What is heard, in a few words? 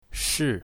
"shi4", Mandarin